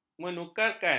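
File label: "menukarkan", Malay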